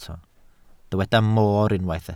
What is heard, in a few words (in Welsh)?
"moor", Welsh